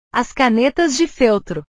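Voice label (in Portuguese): "as canetas de feltro", Portuguese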